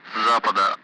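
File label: Speech Sound Russian Common Allied Flight; DCS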